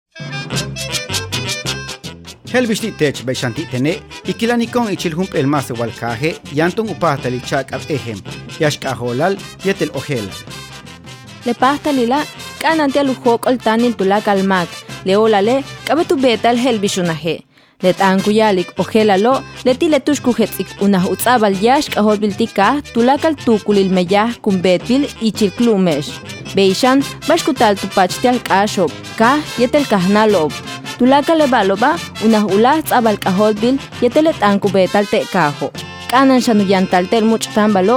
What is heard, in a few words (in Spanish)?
mayayucateco spot; el significado de informado